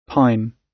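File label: "pine" British English; Time, history; Thời gian, lịch sử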